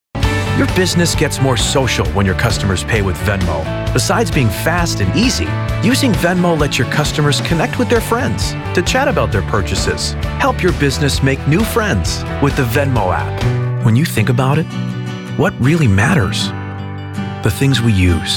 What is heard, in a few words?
Commercial Demo